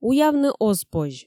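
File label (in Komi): "уявны оз позь", Komi, female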